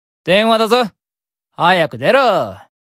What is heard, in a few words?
Call Notification Voice; Mammon